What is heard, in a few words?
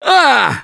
Hurt Major, russian; Gambler